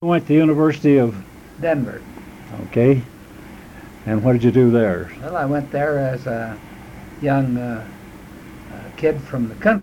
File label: Oral history Colorado, american english dialect recordings; Wayne Aspinall